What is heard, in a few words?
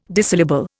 "Disyllable", Indian